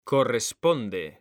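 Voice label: "CORRESPONDE", Spanish, male; mekanta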